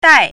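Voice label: "dai4", Mandarin Chinese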